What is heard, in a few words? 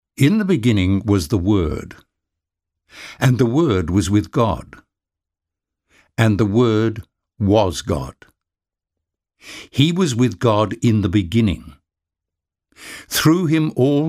nt english niv, english anglicized; eng english anglicized accent audio bible